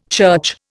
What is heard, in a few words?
"church", Indian